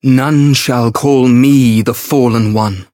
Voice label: variable chant; clarte

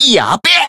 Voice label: damaged, jp

